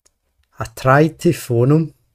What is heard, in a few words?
I tried to phone him, glaswegian